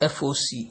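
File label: "FOC", Nigerian Pidgin, male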